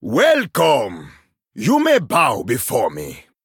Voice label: Male Troll Greetings; en